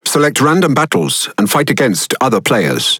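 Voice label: Speech Tutorial, British English; World Of Warships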